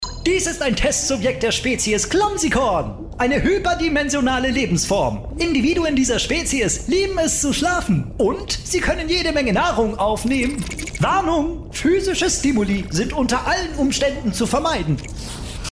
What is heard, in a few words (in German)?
Charge Elanvoller Boardcomputer